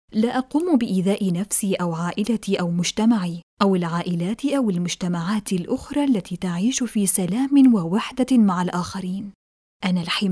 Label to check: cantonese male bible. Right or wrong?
Wrong